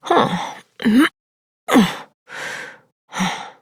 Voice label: Horse Dismount Adult, Dialog; Duviri